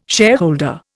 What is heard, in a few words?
"shareholder", Indian